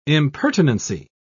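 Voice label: "impertinency", British English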